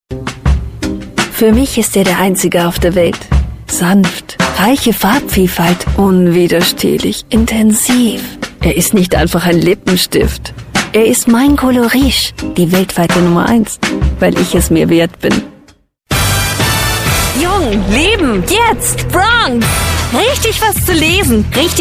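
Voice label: german female commercial; voice demos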